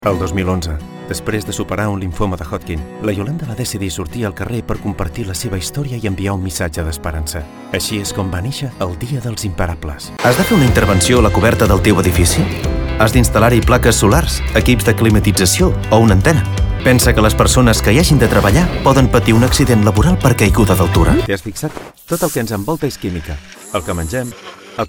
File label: catalan corporate videos